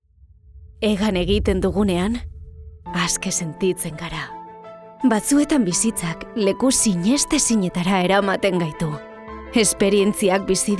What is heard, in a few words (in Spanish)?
Publi Euskera